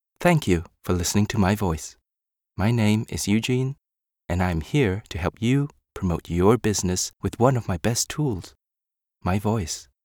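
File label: male voice, English accent, Chinese; voice demos; GoLocalise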